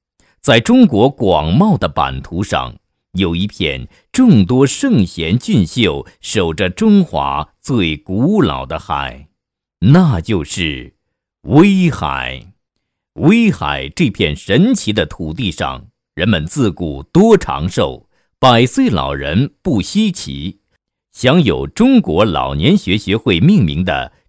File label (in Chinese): 男 大气专题; 抒情; 威海